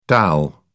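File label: "dal", British English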